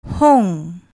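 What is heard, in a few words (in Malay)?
"hong", Malay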